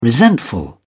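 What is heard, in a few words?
"resentful", British English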